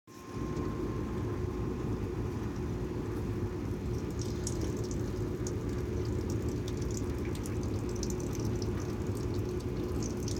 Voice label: Listening to the rain with you, Rain, Windchimes, F4M; Sitting in your lap; Let's run in the rain